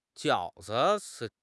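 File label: "jiao3 zi5", Mandarin Chinese; HSK4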